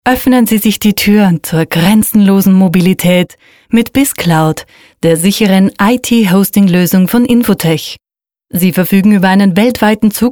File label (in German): Werbung